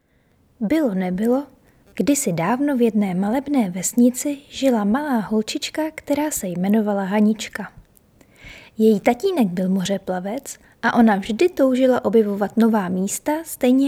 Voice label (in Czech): narace